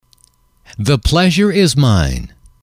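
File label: "the pleasure is mine", English, male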